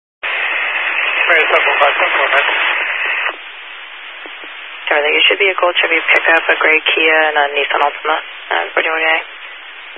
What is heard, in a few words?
Highway Patrol, Phoenix Metro East Area, scanner